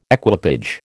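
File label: "equipage", American English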